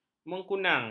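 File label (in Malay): "mengkunang", Malay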